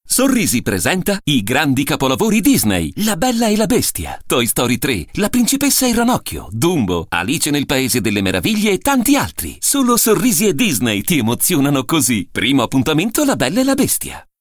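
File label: Italian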